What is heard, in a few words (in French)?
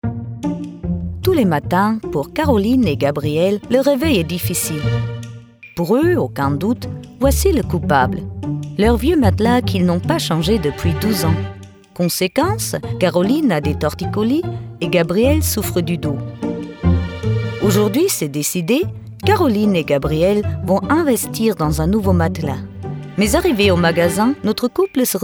french others; German voice actor